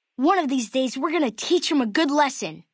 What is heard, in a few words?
male child greeting; FNV